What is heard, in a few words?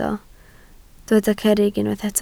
"cerrig", welsh